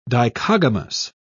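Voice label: "dichogamous", British English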